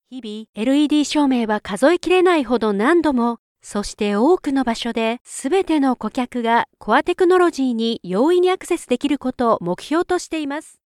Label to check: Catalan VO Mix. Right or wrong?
Wrong